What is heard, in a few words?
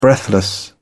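"breathless", British English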